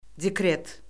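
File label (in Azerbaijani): "dekret", Azerbaijani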